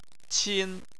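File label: "qin", Chinese, tone 1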